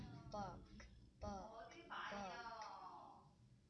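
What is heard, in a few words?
"book", spoken word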